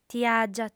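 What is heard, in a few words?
"tiàgia", female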